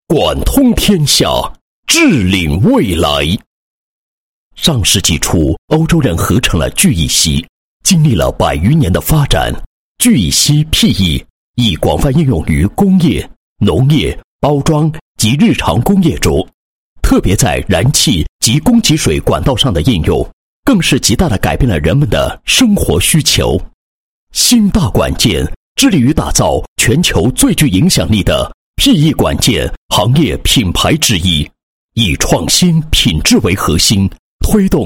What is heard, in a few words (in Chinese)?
男 企业 产品宣传介绍; 新大管件产品宣传介绍